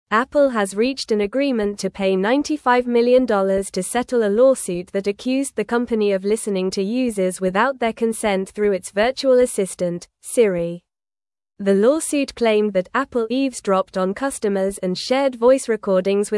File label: English Advanced NORMAL Reading; English Newsroom; Apple Settles Siri Eavesdropping Lawsuit for 95 Million